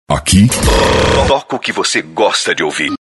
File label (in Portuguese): vinheta